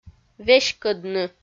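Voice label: "веськӧдны", Komi, female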